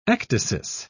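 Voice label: "ecdysis", British English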